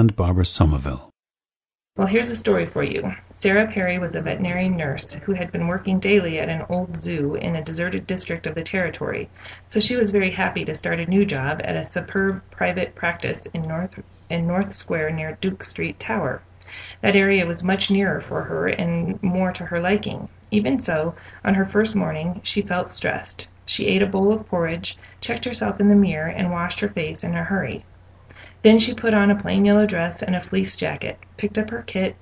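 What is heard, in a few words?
colorado, International Dialects of English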